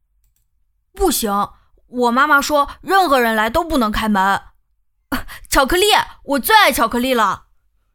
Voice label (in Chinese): 男孩